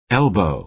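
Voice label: "elbow", American English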